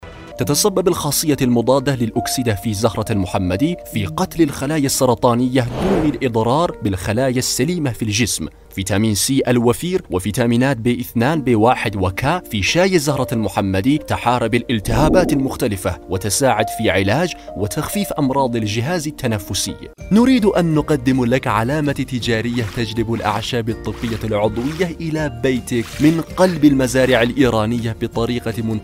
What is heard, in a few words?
Arabic Commercial, Male, Adult